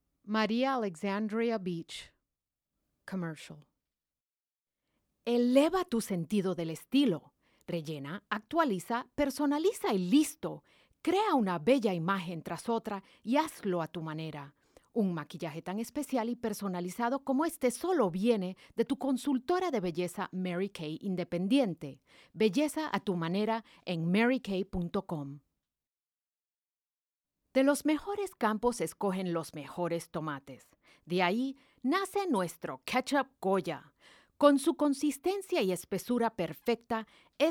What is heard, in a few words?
languages female spanish